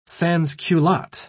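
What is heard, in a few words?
"sansculotte", British English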